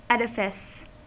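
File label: "edifice", American English; pronunciation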